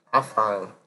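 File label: "afang", Nigerian Pidgin, male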